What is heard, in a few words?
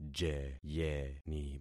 "jeyeni", concat syllables; Affixing experiment